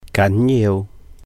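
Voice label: "gán yiu", Cantonese; important; 緊要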